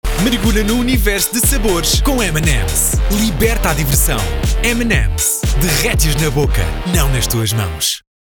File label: Spot